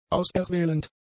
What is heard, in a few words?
"auserwählend", German; adjectives